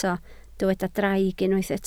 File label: "draig", Welsh